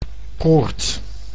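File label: "koord", Dutch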